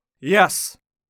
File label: "Yes", British Male